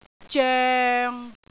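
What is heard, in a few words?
"zoeng6", Cantonese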